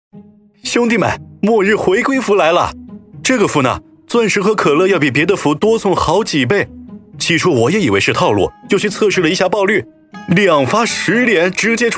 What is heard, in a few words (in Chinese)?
男 广告 游戏信息流; 抒情温暖 轻松活泼